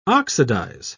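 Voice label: "oxidise", British English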